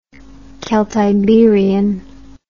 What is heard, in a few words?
"celtiberian", English